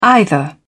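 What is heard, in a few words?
"either", British English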